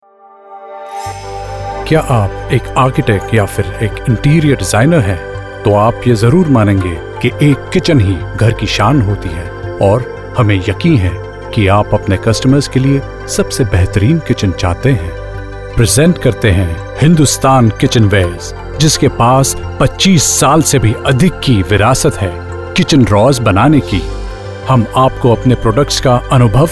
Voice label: Hindi MVO Corp AV; Hindustan Kitchenware